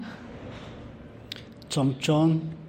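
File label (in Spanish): "dieciocho", Spanish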